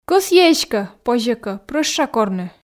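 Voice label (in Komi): кӧсйи эськӧ позьӧ кӧ прӧща корны, Komi, female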